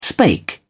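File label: "spake", English, British English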